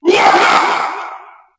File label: "ya" 8 bit fighting guy; ooh ahh